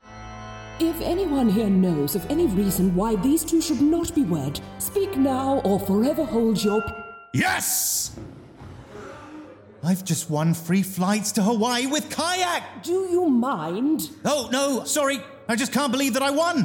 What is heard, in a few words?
female english radio commercial; kayak; Devine Voices